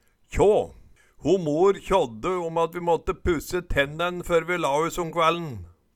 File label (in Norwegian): kjå, Numedalsmål